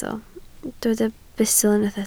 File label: "bustl", Welsh